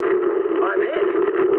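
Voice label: English aircraft destroyed mood med; War Thunder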